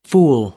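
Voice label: "fool", English; noun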